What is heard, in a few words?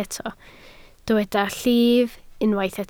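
"llif" Welsh, spoken word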